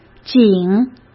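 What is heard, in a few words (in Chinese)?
"景", Mandarin Chinese; chinese-flashcards; hsk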